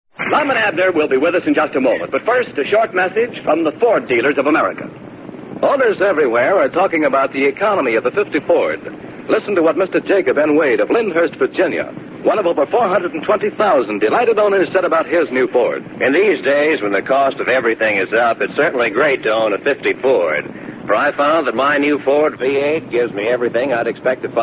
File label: vintage commercials; ford